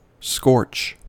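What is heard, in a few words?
"scorch", US English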